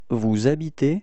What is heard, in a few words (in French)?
"habiter vous", frans